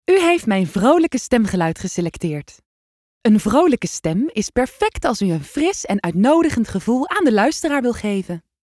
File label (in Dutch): vrolijk